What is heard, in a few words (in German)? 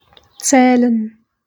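"zählen", German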